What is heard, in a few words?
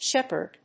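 "Shepard", English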